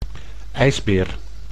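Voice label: "ijsbeer", Dutch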